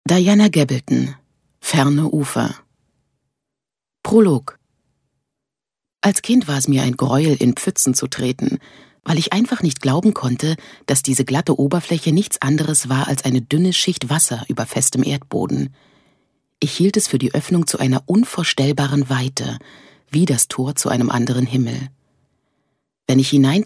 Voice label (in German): Hörbuch